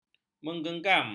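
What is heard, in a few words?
"menggenggam", Malay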